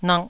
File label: "nak2", Taiwanese